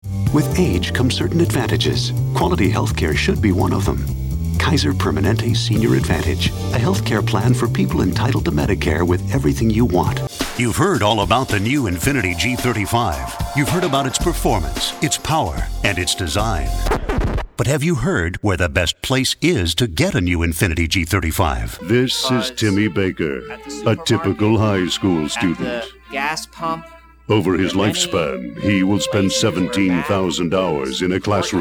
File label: Commercial